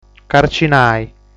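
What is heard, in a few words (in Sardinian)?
"Carcinai", Sardinian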